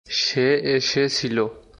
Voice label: Bengali, Bangladeshi Accent; He had come; Se ese chilo